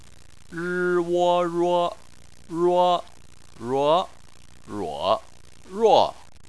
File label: "ruo", chinese